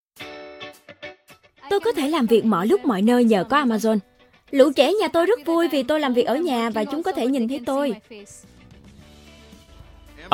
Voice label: Vietnamese female and male voices interview; Lồng tiếng phỏng vấn; Lồng tiếng phỏng vấn (Amazon)